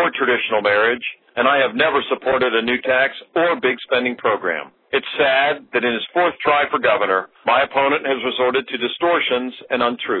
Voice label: Conservative Robo Call; Charlie Crist